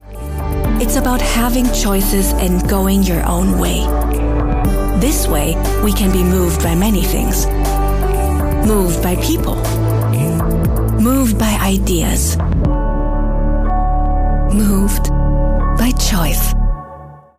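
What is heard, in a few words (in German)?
US English, Werbung Dynamisch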